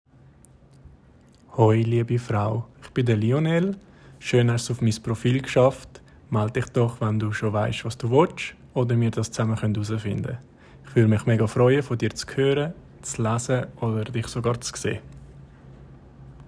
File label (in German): Deutsch